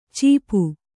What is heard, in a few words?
"ಚೀಪು", kannada